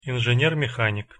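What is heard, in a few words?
"inzener mehanik", Russian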